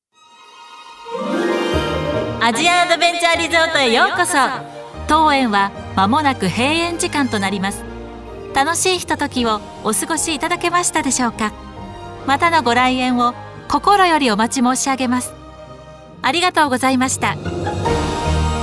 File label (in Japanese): ナレーション（日本語）; 英日ナレーション